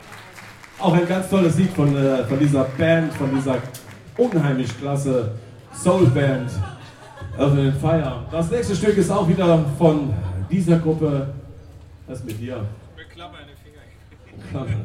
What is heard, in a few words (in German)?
Ansage; Place D'Armes, LU-Zentrum